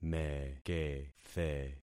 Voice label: "mekethe", concat syllables; Affixing experiment